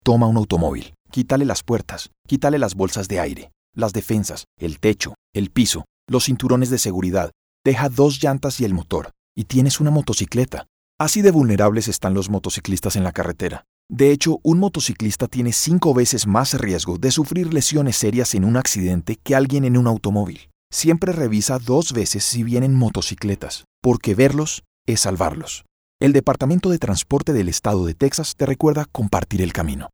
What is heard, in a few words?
radio span